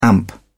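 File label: "amp", English, British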